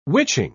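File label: "witching", English, British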